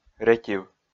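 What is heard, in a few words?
"ретив", Komi, male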